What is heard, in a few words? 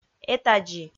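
"этадзи", Komi, female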